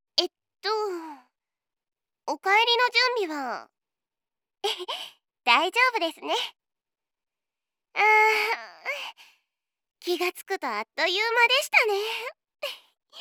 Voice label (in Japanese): おかえりのご挨拶, ASMR